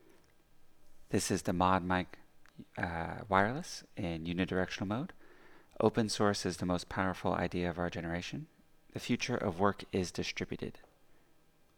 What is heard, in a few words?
modmic wireless uni